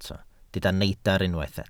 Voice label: "neidr", Welsh